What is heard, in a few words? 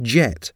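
"jet", English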